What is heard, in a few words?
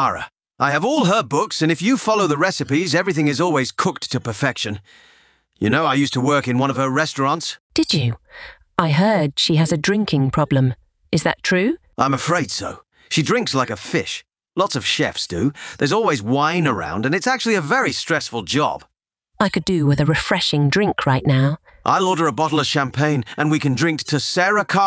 Greengrocer Dialogue